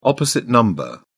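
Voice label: "opposite number", British English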